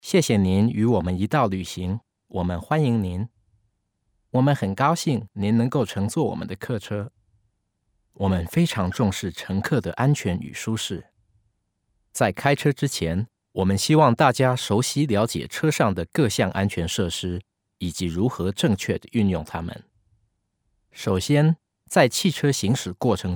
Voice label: Passenger Safety Instructions chinese; bus

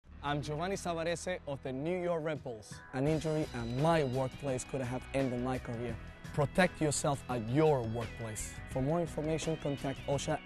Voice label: psa english

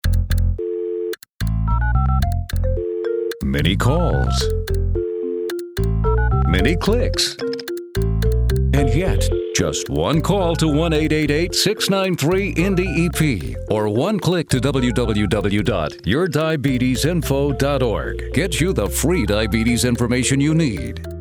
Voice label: Radio

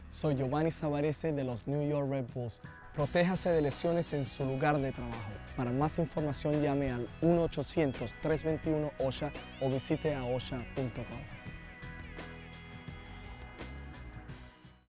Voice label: psa spanish

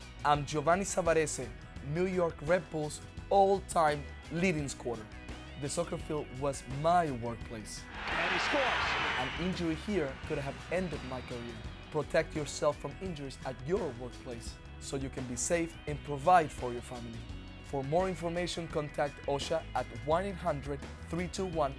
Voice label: psa english

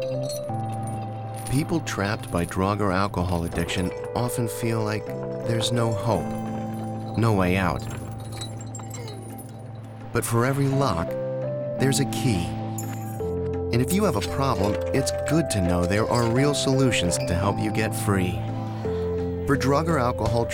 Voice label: radio; recoverymonth

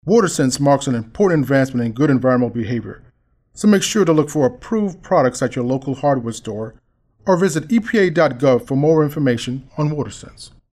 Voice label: psa; watersense

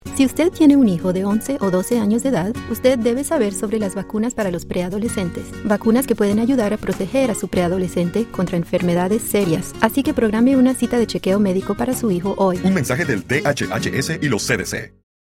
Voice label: Radio Spanish; vaccines; preteens-adol; PSAs

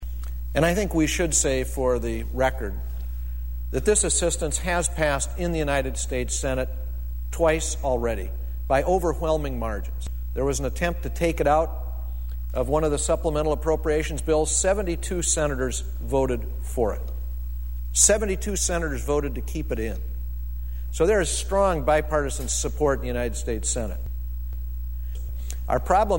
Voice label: Floor Speech; Ag Disaster; conrad.senate

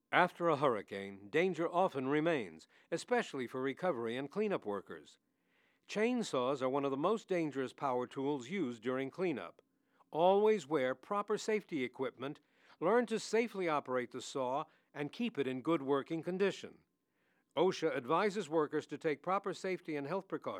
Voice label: chainsaws english

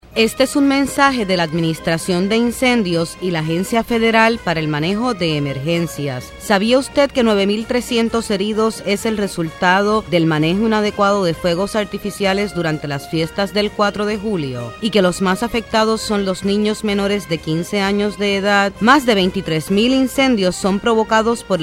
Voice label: PSA ESP Fireworks

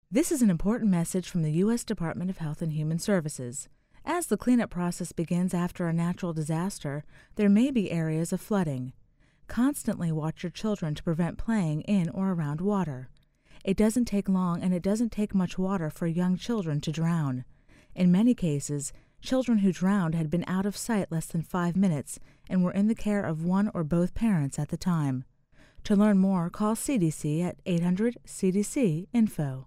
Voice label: psa disaster drowning female voice

